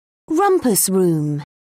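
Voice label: "rumpus room", English, British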